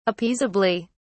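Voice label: "appeasably", British English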